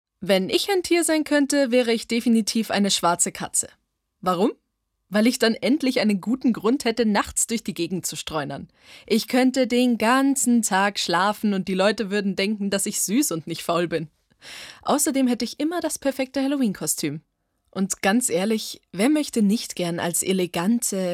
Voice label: Nat Stimme

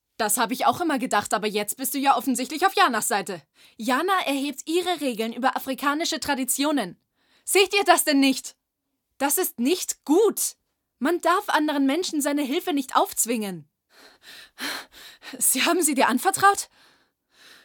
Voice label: Real Synchron Eingeschnappt

